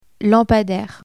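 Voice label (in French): "lampadaire", French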